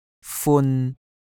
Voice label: "fun1" Cantonese

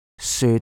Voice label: "syut3", cantonese

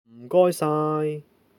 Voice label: "saai3", Cantonese

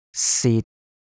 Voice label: "sit3", cantonese

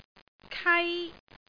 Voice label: "kai1", cantonese

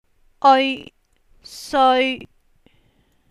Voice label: "eoi seoi1", cantonese

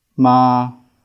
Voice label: "maa3", Cantonese

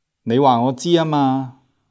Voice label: "aa1 maa3", Cantonese

